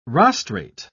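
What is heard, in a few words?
"rostrate", British English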